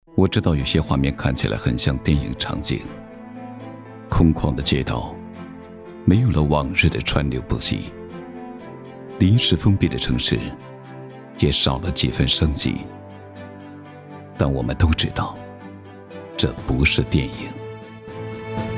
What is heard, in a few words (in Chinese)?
男 旁白 史诗恢弘; 这座城